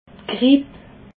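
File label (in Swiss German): "gripp", Alsatian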